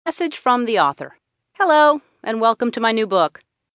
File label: mystery voice